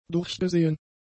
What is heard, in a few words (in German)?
"durchgesehen", German; adjectives